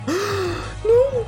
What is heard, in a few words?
gasp noooo